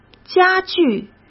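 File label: "家具", Mandarin Chinese; hsk; chinese-flashcards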